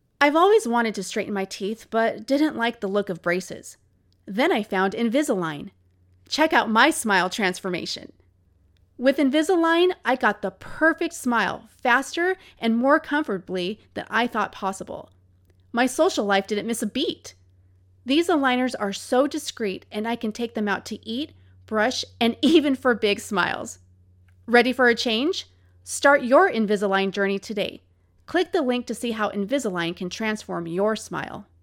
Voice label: voice over commercial; invisalign